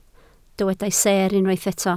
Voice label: "seer", Welsh

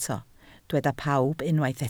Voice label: "pawb", Welsh

